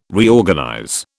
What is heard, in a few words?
"Reorganize", British